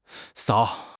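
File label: "sao4", Mandarin Chinese; 汉语口语新时代-初级下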